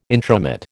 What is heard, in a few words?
"intromit", American